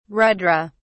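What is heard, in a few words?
"rudra", English, British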